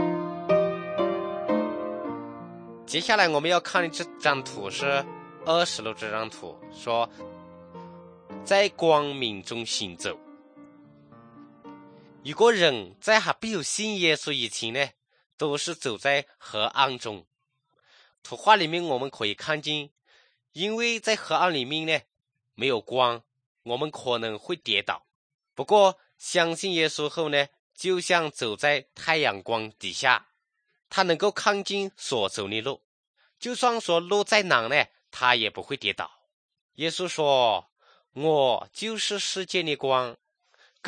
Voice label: good news shan, drama